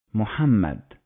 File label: "Muhammad", Arabic